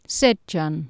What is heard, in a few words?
"secgan", Anglo Saxon